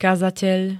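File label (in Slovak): "kazateľ", Slovak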